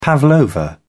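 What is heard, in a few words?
"pavlova", British English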